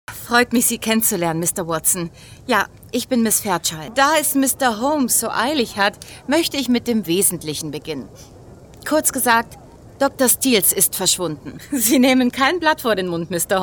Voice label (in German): Hoerspiel; Sherlock Holmes